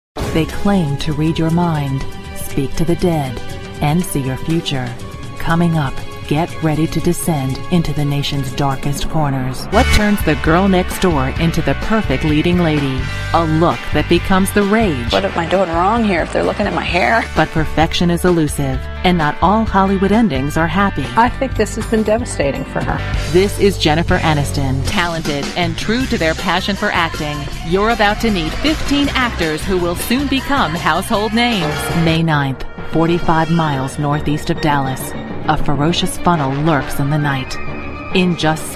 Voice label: narration female